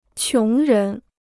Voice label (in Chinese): "穷人", Chinese